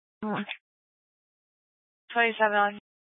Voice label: Sheriff Dispatch, Scanner audio recording